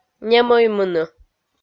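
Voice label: "немӧймыны", Komi-Zyrian, female; kpv audio dict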